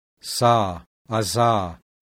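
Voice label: voiced alveolar fricative; IPA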